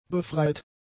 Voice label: "befreit", German; adjectives